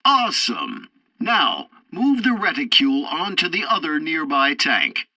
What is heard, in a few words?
tutorial tank arcade third target killed, english; War Thunder